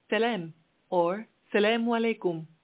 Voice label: Arabic (Moroccan Darija), hello

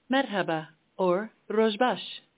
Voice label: Kurdish, hello

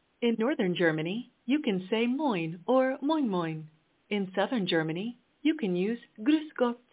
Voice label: hello, German (North-South Germany)

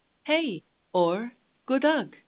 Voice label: Swedish

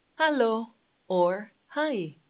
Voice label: hello, Icelandic

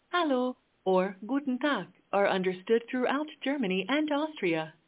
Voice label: German (Germany and Austria), hello

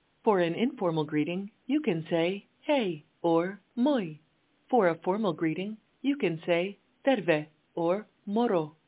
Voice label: hello, Finnish

